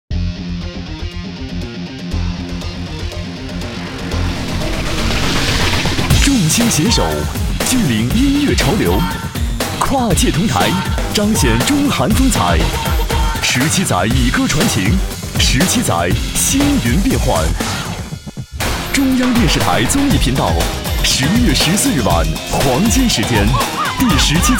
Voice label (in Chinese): 男 宣传片 大气酷炫; CCTV中韩歌会